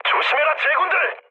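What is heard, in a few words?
Korean near waypoint mood medium; War Thunder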